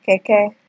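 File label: "keke", Nigerian Pidgin, female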